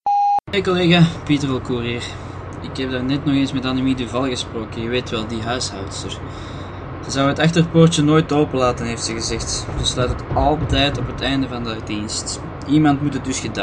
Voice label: Voicemail